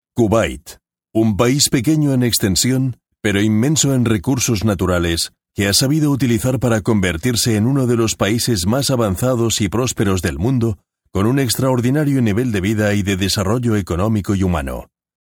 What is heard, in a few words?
es documentary